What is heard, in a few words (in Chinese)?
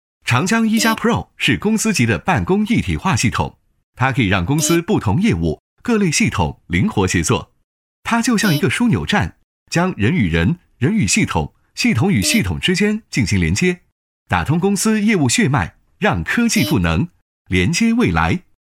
男 产品介绍 年轻 科技感, 时尚科技 轻松活泼; 长江e家pro